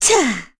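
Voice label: Vox Landing, Korean; heroes; Laudia; kings raid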